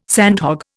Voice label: "sandhog", Indian